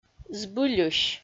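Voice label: "збыльысь", Komi-Zyrian, female; audio dict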